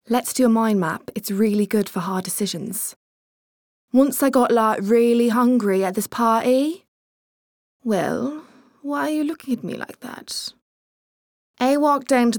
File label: ACCENTS, Southern Irish, Warm, Natural, Conversational, Engaging, Versatile, Smooth